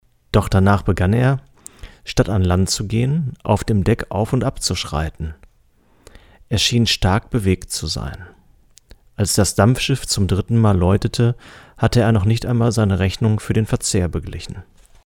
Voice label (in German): weichen Bariton; Beyerdynamic MC840